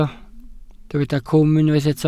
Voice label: "cwm", Welsh